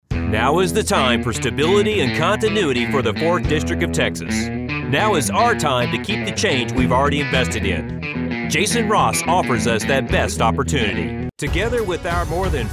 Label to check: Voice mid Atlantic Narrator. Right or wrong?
Wrong